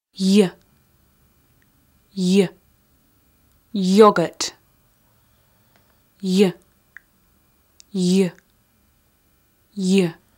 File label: Phonics Spoken Guide Y X C